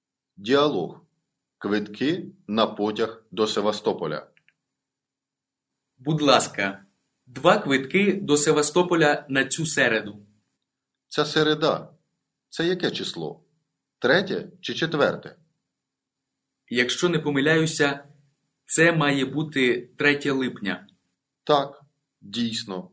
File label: Dialogue, Ukrainian